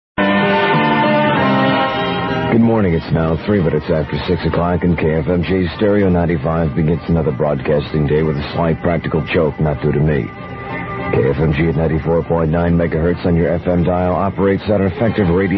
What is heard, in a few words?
alt rock sign on; kfmg 94 9